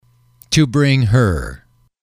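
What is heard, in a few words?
"to bring her", English, male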